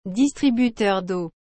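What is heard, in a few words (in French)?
"distributeur d'eau", French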